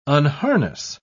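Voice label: "unharness", British English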